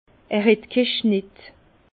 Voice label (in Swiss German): "schnett", Bas Rhin; vin